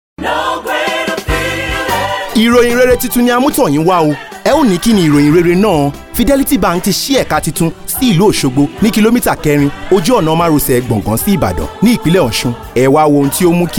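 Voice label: African youruba male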